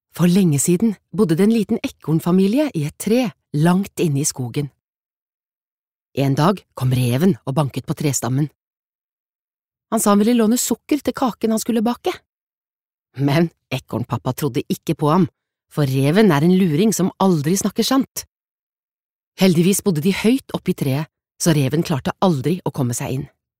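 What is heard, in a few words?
Norwegian forteller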